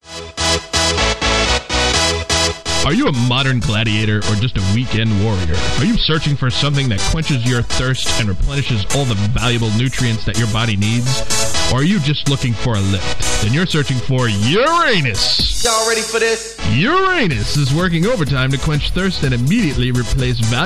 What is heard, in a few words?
commercial; uranus